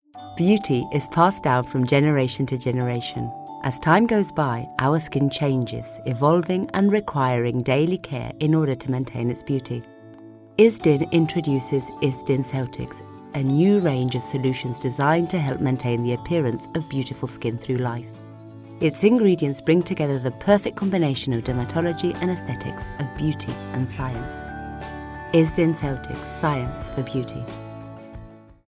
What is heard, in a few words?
female non native english gel comercial